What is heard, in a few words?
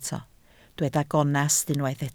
"gonest", Welsh